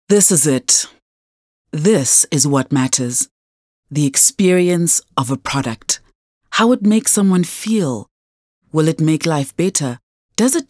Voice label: English intimate